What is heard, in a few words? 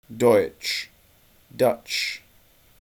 deutsch vs dutch